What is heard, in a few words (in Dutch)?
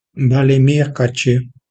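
"balemeerkatje", Dutch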